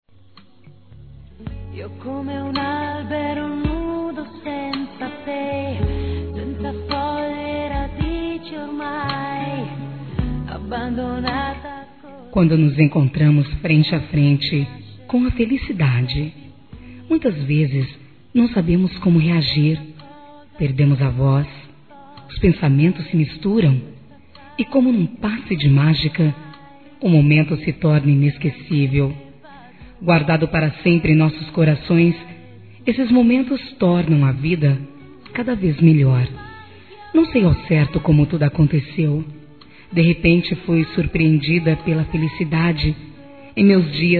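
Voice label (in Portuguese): RECENTE, female, Dia Dos namorados; Telemensagens 2017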